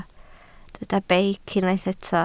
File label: "beic", Welsh